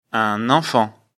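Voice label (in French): "enfant", French; afrikalan; lecture fr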